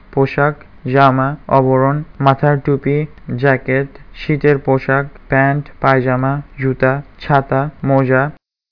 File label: bengali clothes